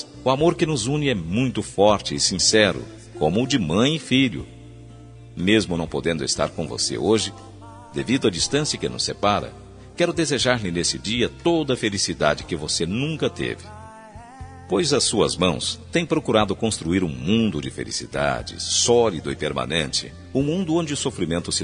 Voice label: Aniversário Madrinha – Voz Masculina

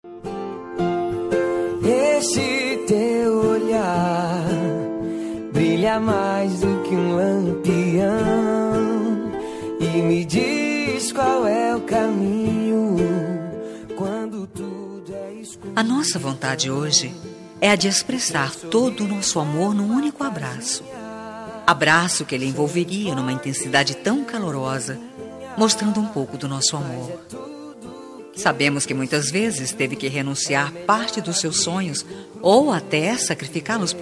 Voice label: fem plural, Voz Feminina; Dia dos Pais

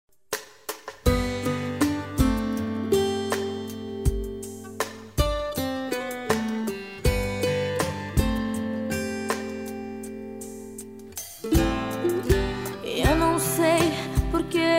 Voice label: saudades fem, Telemensagem de Saudades, Voz Feminina; Separados

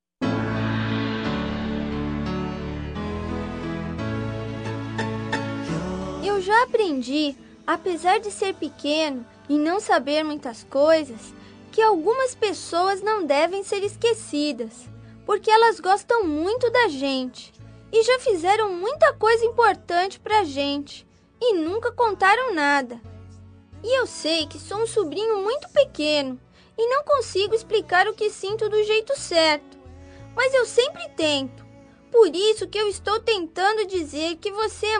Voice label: voz infantil masc tia o, Aniversário Voz Infantil – Tia(o) – Voz Masculina; Telemensagens

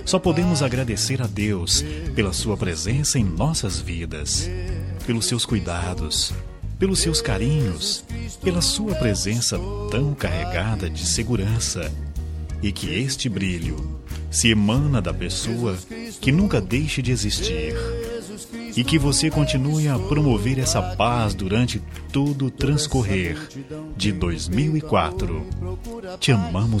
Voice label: natal mãe masculina, voz masculina

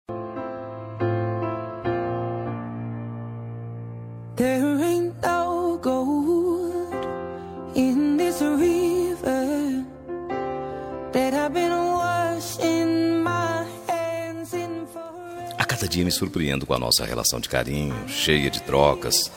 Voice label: lgbt masc, Dia dos Namorados para Namorado LGBT – Voz Masculina

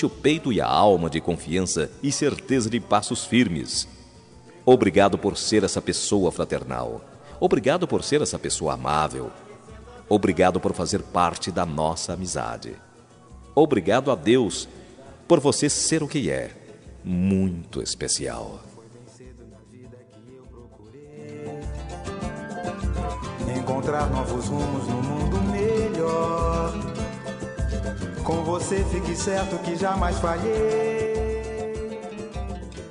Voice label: dia do amigo masc amiga, Voz Masculina

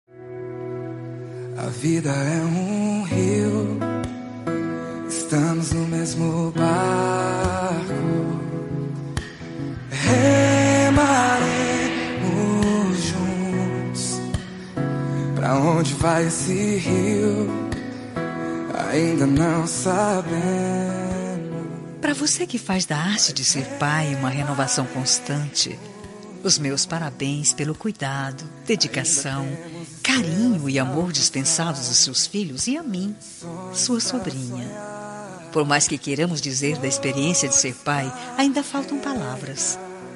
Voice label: tio fem, Voz Feminina; dia dos pais para tio

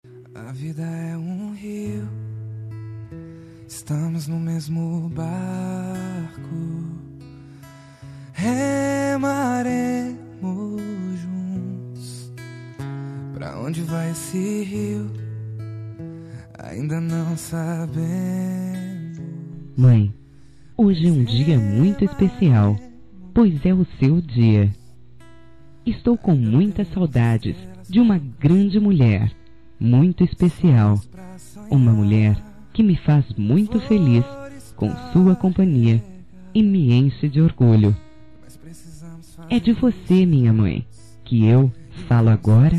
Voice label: dia das mães distante, voz feminina; dia das maes para mae distante voz feminina

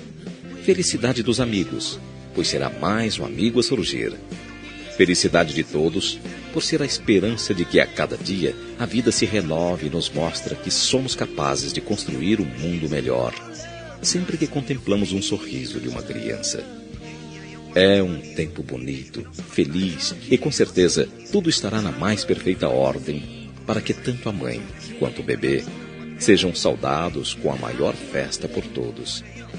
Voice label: gravidez masculina, Telemensagem de Gestante – Voz Masculina

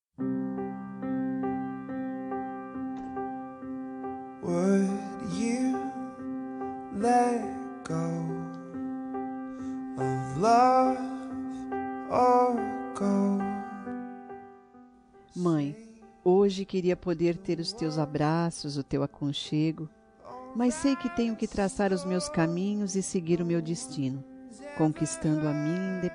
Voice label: distante dia das mães, voz feminina; dia das maes para mae distante voz feminina

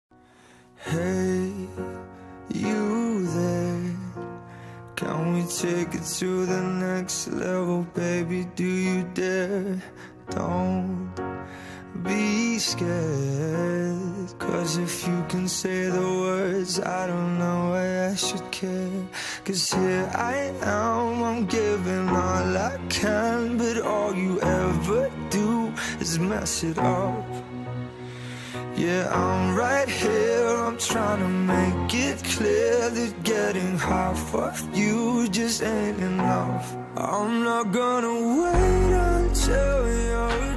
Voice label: dn marido fem; dia dos namorados para esposa voz masculina

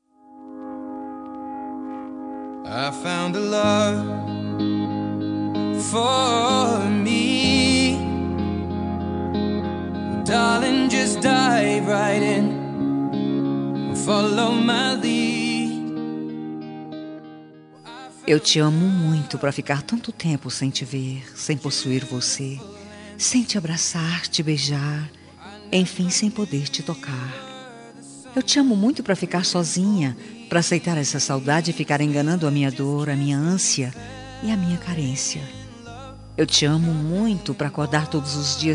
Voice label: saudades romântica, Telemensagem Romântica Distante – Voz Feminina

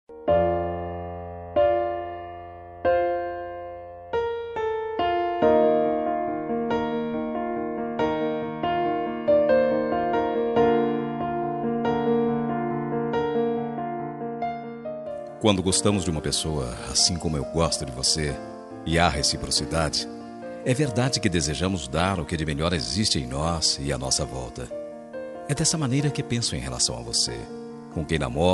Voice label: namorado masc, voz masculina; Dia dos Namorados

